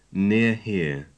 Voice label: "near here", English